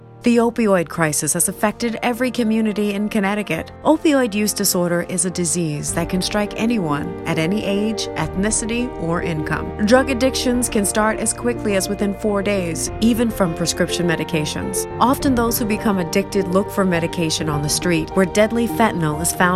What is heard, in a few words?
female spot